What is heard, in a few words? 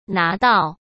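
"拿到", Mandarin Chinese; hsk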